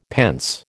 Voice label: "pence", American